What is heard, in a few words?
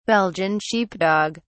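"belgian sheepdog", British English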